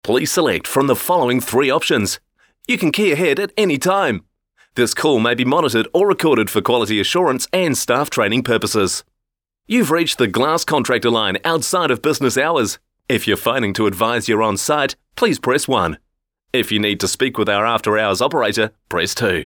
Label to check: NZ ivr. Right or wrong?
Right